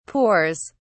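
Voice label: "pours", British English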